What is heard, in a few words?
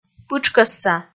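"пытшкӧсса", Komi, female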